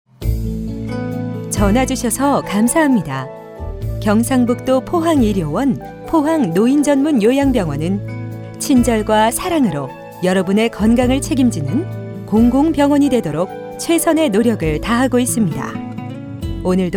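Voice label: Korean, female, ARS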